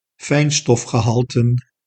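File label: "fijnstofgehalten", Dutch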